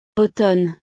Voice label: "automne", francés; los meses

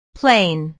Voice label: "plane", inglés

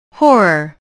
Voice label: "horror", inglés

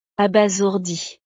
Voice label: "abasourdi", francés; estados animo

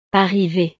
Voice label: "arriver", francés

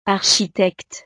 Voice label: "architecte", francés; profesiones

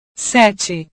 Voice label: "sete", portugues

